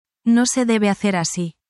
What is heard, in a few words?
spanish, spanish phrases